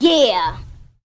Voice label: "yeah" girl